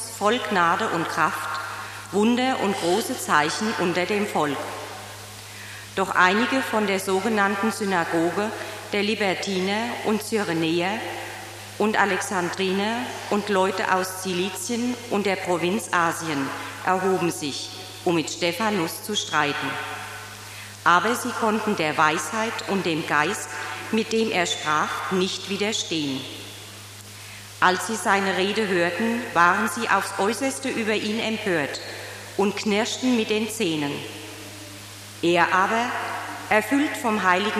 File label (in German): Lesung; Apg